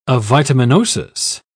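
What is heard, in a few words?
"avitaminosis", British English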